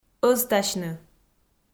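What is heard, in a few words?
"ӧзтасьны", Komi, female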